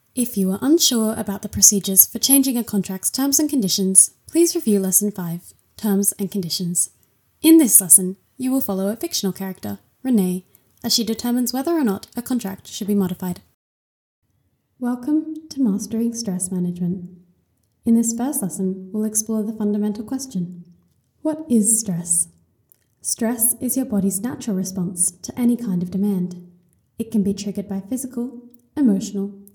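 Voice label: Voice Australian Explainer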